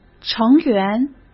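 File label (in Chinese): "成员", Mandarin Chinese; chinese-flashcards; hsk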